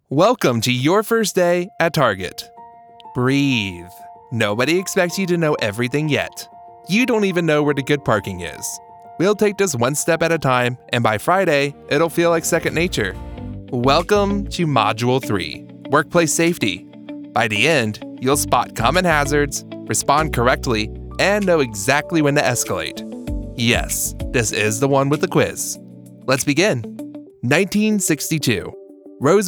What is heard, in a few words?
elearning